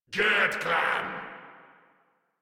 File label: Attack, Grineer Male; Warframe